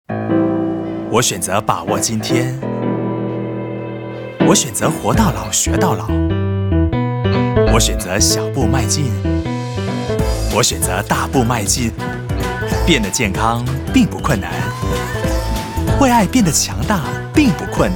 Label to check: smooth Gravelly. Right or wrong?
Right